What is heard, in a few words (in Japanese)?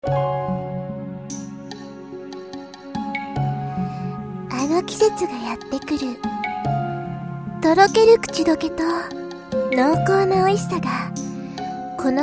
okasi cm